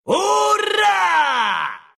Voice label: move, ru; dota2